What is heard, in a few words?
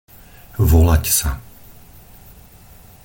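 "volať sa", Slovak; pronunciation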